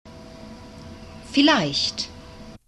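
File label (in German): "vielleicht", German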